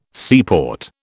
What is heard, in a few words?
"seaport", British